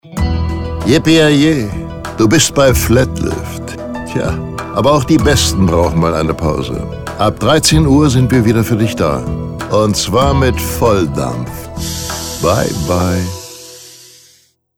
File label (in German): Mitagspause Stereo, Telefonansagen; Flatlift